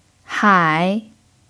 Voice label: "hai3", chinese

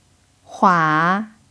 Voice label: "hua3", chinese

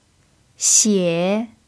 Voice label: "xie3", chinese

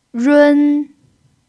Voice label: "run1", Chinese

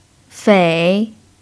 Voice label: "fei3", chinese

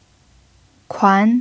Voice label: "kuan2", chinese

